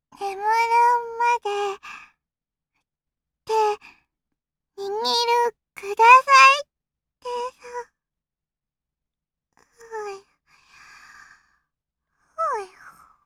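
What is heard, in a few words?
girl, Windows shutdown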